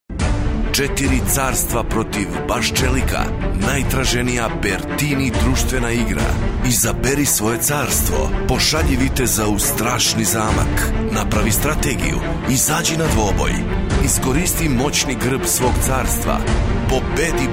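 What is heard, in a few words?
serbian male commercial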